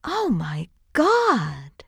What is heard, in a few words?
female wondering